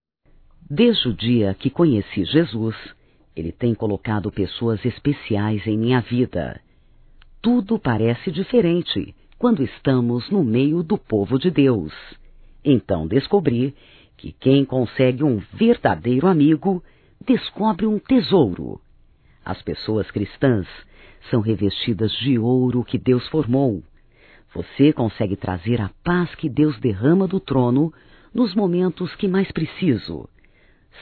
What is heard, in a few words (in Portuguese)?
AMIZADE, Telemensagens, Evangelico, fem; Telemensagens 2017